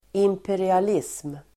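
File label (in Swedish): "imperialism", Swedish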